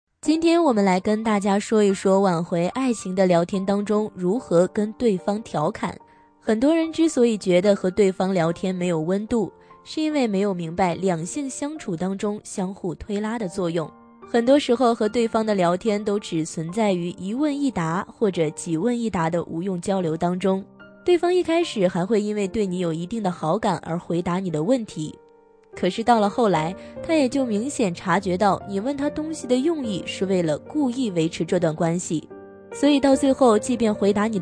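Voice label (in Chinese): 女自媒体, 感情知性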